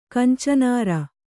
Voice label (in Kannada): "ಕಂಚನಾರ", Kannada